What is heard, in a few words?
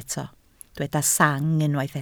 "sang", Welsh